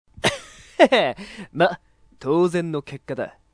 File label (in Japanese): shouri, 勝利, 喧嘩好きアウトロータイプ01, 熱血とか荒くれとかそう言ったコンセプト。一番地に近い自然演技。; ゲーム用フリー音声素材集; 追加入力で派生があったり、隙の少ない突進技でラッシュを組めるキャラが合うかなー